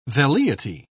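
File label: "velleity", British English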